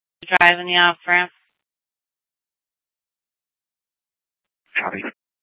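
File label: police dispatch, scanner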